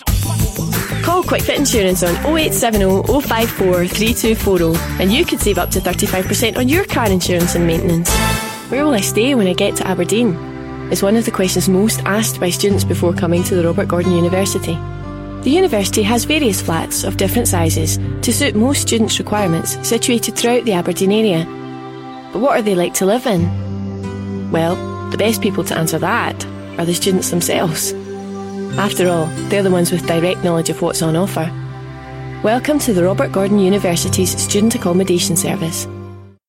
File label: Scottish Voice Overs Commercial